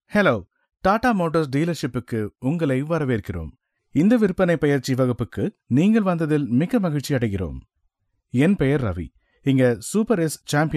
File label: male tamil voice over talent sales